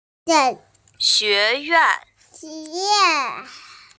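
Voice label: "xue2yuan4", Mandarin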